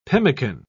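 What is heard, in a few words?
"pemmican", English, British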